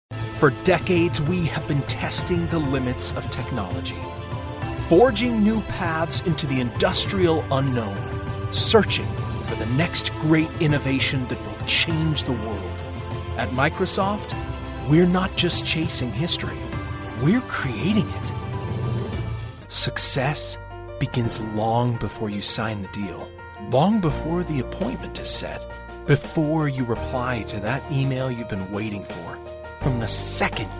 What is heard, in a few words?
US narration, english us, male